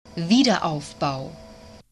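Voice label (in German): "Wiederaufbau", German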